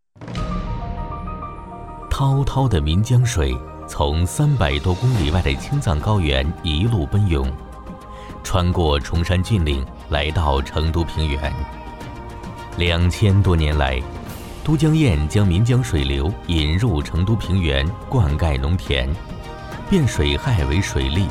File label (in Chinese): 男 纪录片 成都平原